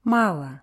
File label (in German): "malo", russische